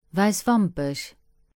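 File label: "Wäiswampech", Luxembourgish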